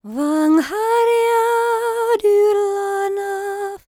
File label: WELSH F, World Voices